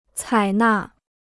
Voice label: "采纳", Chinese